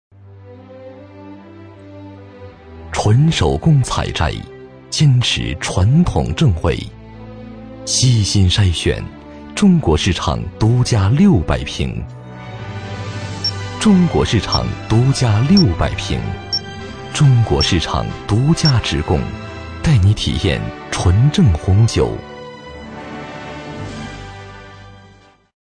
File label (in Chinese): 男 广告 红酒广告